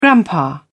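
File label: "grandpa", English, British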